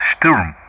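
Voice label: "Shturm", English; Ka-50; Player Weapon; DCS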